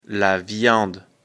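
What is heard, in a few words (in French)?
"viande", French; afrikalan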